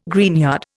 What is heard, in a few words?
"greenyard", Indian